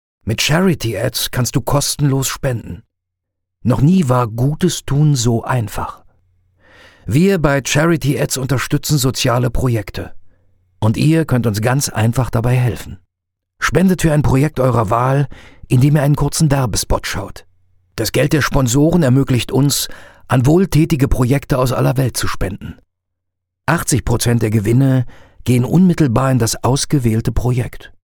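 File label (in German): Werbung Sanft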